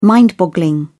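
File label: "mind boggling", British English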